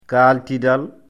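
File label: "kaaltidal", Fula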